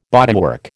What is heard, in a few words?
"bodywork", American